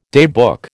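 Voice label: "daybook", American English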